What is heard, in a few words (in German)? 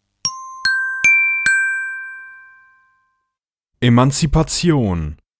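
"Emanzipation", German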